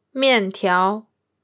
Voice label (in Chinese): "mian4tiao2", Chinese; miàntiáo, noodles; 4+2